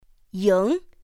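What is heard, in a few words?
"yíng", Mandarin Chinese pinyin; pinyin2; pinyinji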